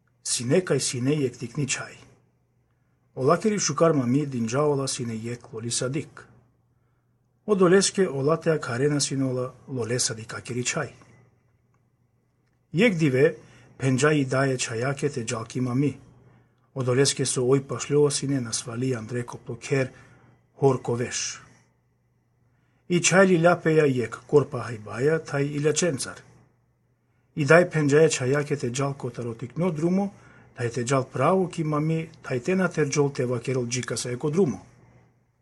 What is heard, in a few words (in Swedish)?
Rodluvan arliromska; Lolesadikakiri chaj